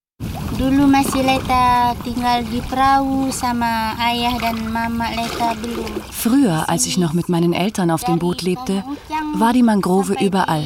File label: Voice Over; Bei den Seenomaden in Indonesien; WDR